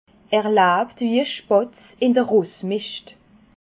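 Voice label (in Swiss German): "spatz", Alsatian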